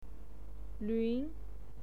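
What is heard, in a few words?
"luun" second tone, pinyin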